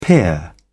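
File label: "peer", English, British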